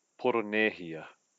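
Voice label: "poronehia", Māori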